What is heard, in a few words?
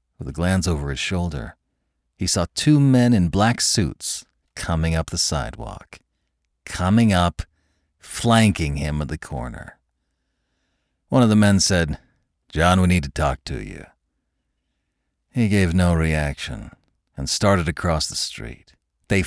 2 men American Gritty; The Classic Tales Podcast; Masculine Voices of the Legion